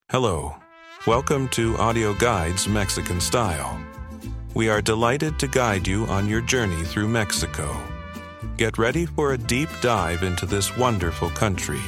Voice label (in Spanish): Bienvenida ingles